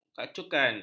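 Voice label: "kacukan", Malay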